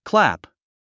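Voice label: "clap", English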